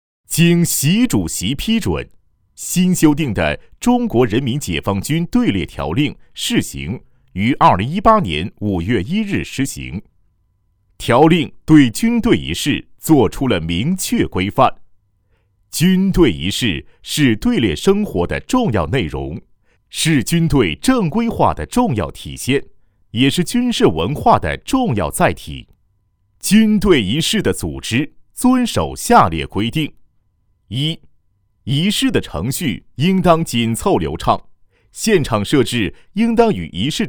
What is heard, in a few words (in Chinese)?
男 专题 部队仪式 三军